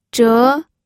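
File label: "zhe2", Mandarin Chinese pinyin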